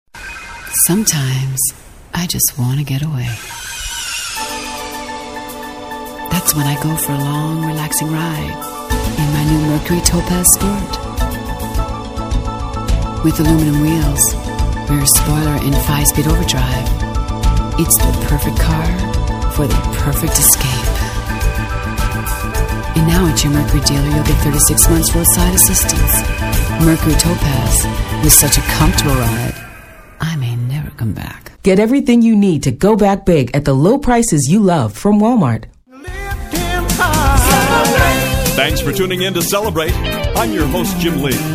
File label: Voiceover samples; Exodus Studio; TV Radio and Multimedia